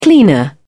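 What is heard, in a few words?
"cleaner", English, uk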